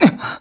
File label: Hurt; Link